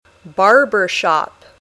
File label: "barber shop", English